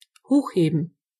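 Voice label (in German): "lift", German; gcompris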